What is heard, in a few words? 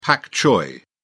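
"pak choi", British English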